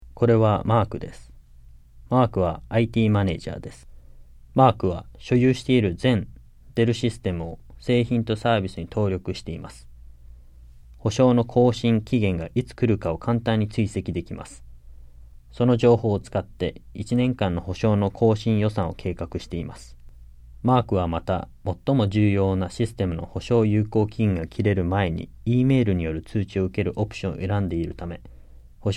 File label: JAPANESE; delltranslations